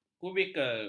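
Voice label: "kubikel", Malay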